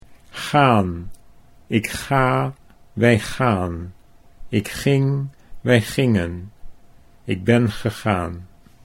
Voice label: "gaan ik wij", Dutch

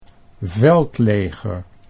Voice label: "veldleger", Dutch

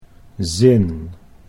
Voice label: "zin", Dutch

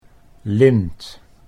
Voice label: "lint", Dutch

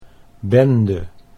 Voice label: "bende", Dutch

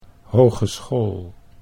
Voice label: "hogeschool", Dutch

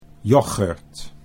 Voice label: "yoghurt", Dutch

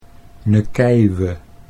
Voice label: "nekeiwe", Dutch

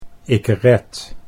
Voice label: "ik red", Dutch

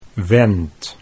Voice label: "went", Dutch

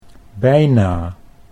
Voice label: "bijna", Dutch

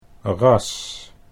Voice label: "ras", Dutch

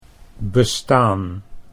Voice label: "bestaan", Dutch

